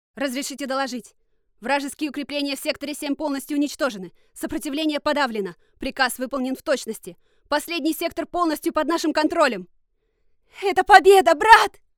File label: Russian Female Soldier